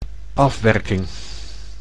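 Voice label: "afwerking", Dutch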